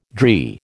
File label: "dree", American